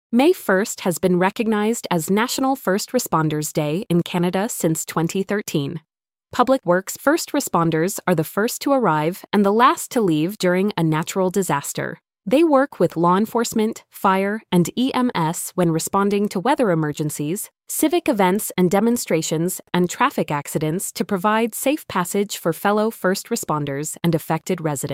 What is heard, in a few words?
Canadian PSA Female; 30-second PSA, female voice; Canada’s National First Responders Day is May 1